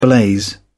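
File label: "blaze", British English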